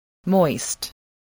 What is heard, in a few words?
"moist", voice, spelling